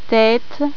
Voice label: "tetë", Albanian; eight

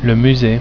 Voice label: "musee", french

